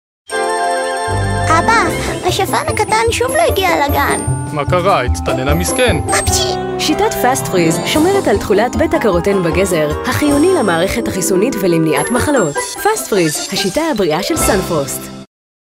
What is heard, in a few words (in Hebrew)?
קריינות ודיבוב השפן הקטן